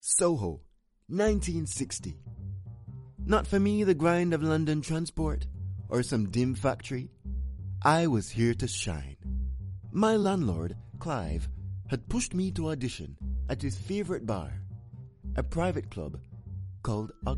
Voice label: English Caribbean M Commercial; accent of the caribbean

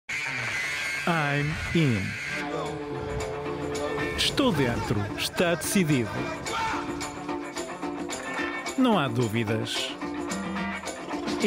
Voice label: Portuguese M Ad

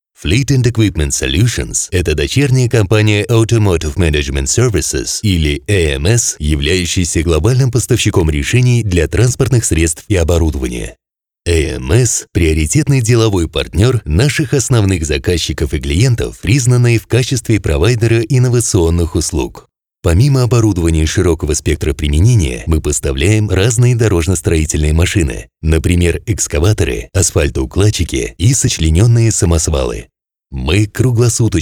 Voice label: Russian M Corporate

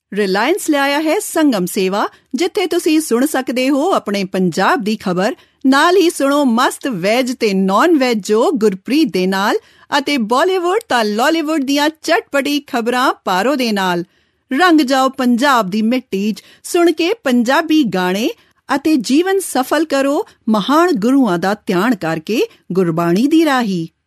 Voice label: Punjabi F; reliance

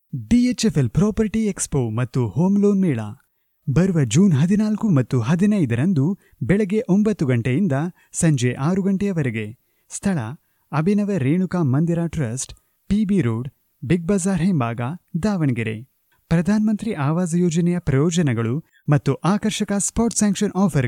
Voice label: Kannada M

